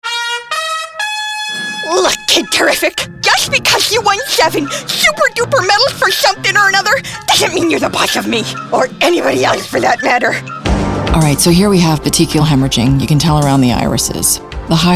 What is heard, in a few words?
ANIMATION